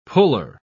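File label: "puller", British English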